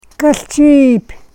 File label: "kaschiip", karuk